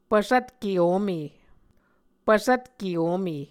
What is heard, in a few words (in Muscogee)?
"pvsvtke ome", Muscogee